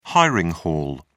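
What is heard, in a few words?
"hiring hall", British English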